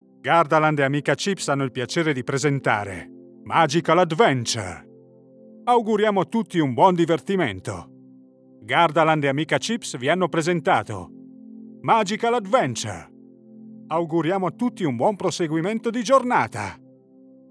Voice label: italian tv shows

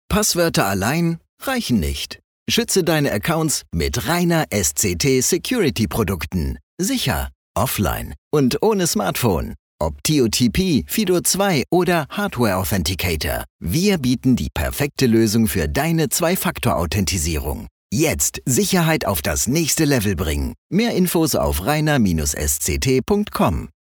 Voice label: german radio imaging; professioneller zweisprachiger Sprecher

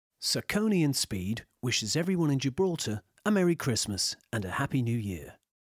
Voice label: english irish

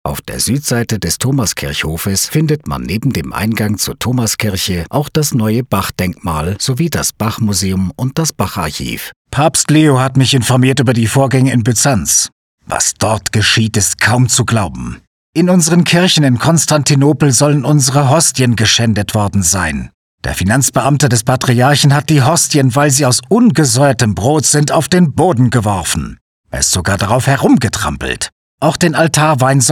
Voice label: german audio guides

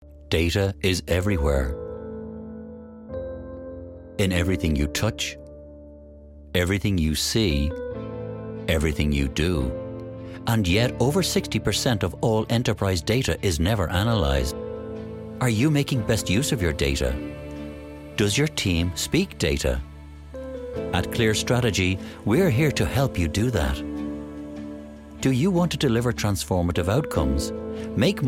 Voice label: english irish corporate videos; Warm, authentic Irish voice with depth, clarity and versatility

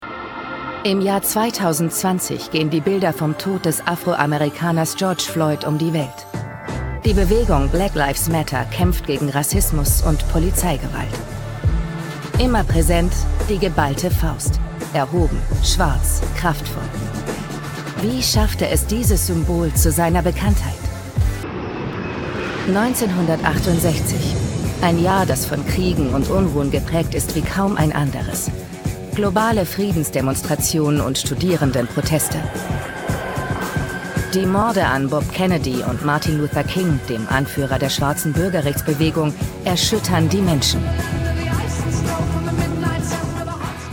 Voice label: german documentaries; voice actors

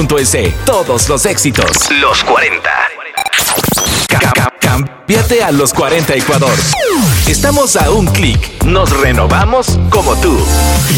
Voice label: spanish latin american radio imaging

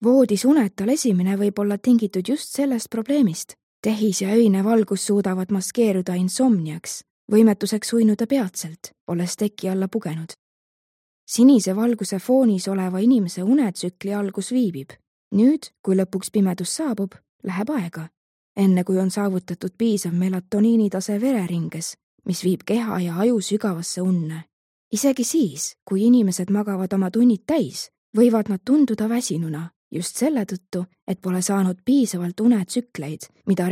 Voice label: estonian narration

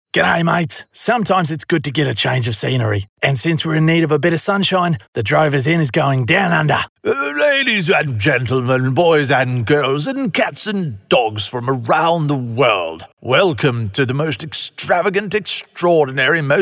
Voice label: english australian animation

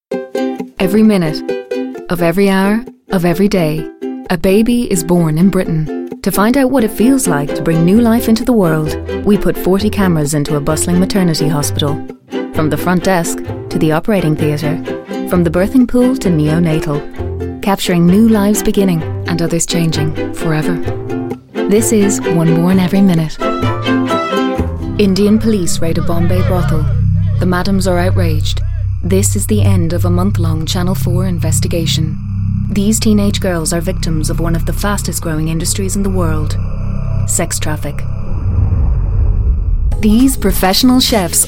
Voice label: english irish narration

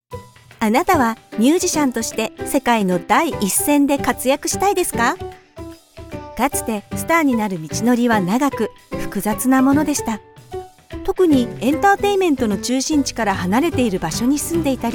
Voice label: Japanese podcasts

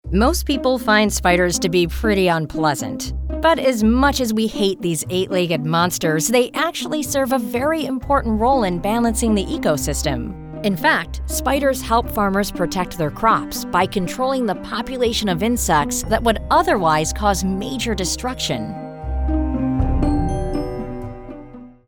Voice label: English American documentaries

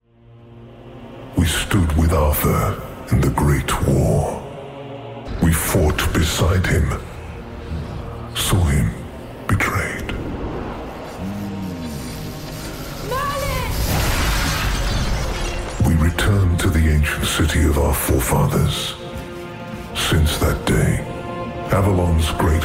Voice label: english british video games

